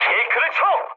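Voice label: Korean bailout mood med; War Thunder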